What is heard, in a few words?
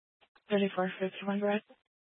Police Dispatch, scanner; Police 1 Dispatch